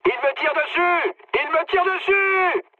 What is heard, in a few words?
aircraft damaged mood high, speech fr